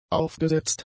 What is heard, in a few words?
"aufgesetzt", German; adjectives